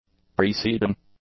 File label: "preceding", english; adjectives